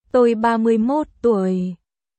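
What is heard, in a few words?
Vietnamese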